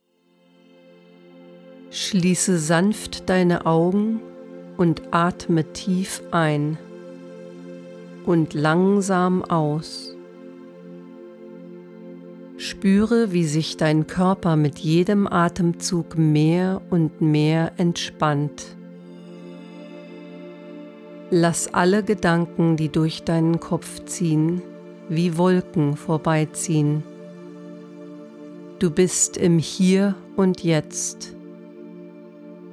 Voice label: Meditation German Music